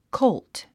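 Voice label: "colt", English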